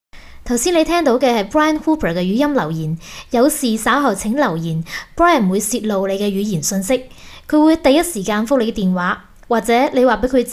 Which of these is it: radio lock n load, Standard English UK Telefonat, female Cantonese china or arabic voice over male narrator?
female Cantonese china